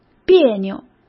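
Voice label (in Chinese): "别扭", Mandarin Chinese; hsk; chinese-flashcards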